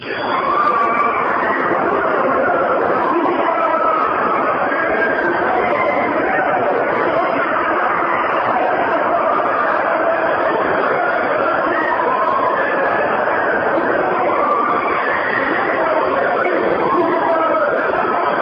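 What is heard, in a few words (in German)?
Stimmen aus der Hölle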